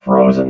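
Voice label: "frozen", robot voice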